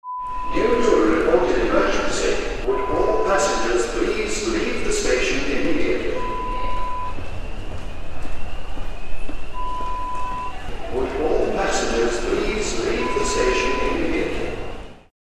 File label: Announcement sound